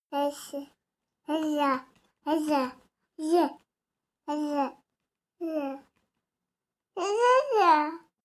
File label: baby talk count